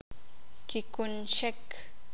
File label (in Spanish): "Curarlo", sakapulteko